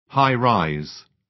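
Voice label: "high-rise", British English; Design and innovation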